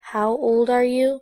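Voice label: "how old are you" English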